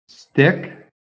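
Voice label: "stick", American English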